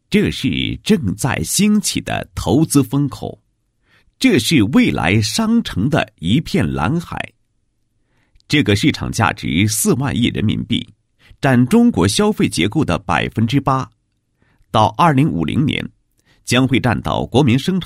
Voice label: 男; 企业; 老龄产业投资股份有限公司

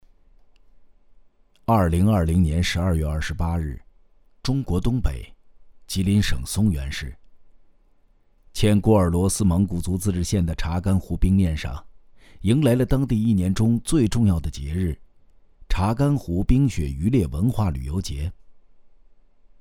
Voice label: 男 风格试样; 查干湖; 人生一串

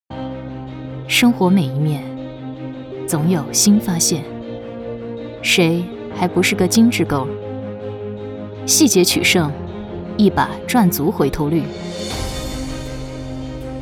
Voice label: 女 TVC 素人 力量感

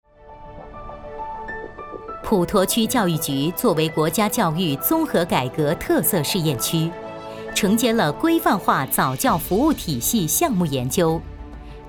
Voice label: 女 专题 早教视频宣传片; 普陀区

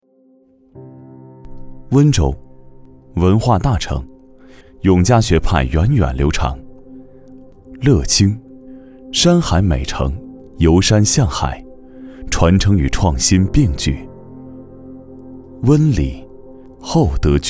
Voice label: 男 专题 年轻 温馨; 乐清

